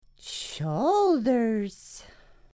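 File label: "shoulders", English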